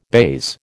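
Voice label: "baize", American English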